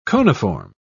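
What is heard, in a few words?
"coniform", British English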